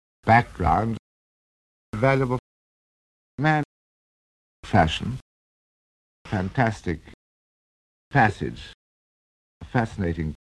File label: rp trap, accents